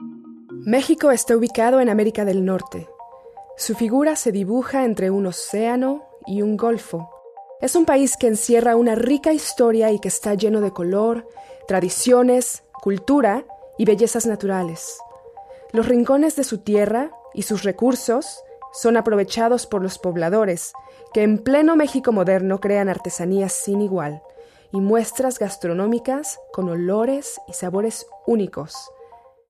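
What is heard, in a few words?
Latin American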